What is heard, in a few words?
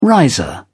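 "riser", British English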